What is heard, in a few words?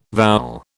"vowel", British